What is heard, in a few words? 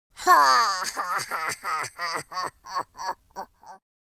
sad, speech, War General